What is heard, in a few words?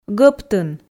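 "гӧптын", Komi, female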